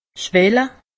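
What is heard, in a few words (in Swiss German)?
"schwelle", Alsatian; medecine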